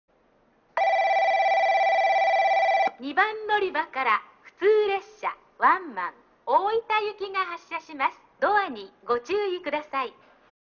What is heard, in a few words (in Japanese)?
2番のりば発車放送; 普通ワンマン 大分行き; 中津